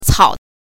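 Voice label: "cao", Mandarin Chinese pinyin, neutral tone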